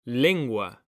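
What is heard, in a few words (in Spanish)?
"lengua", Spanish, male; mekanta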